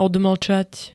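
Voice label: "odmlčať", Slovak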